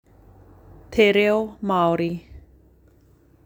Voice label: te reo Maori